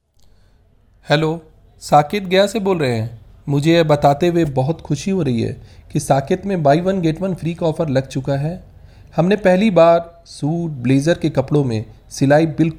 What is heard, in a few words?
Sales Promotions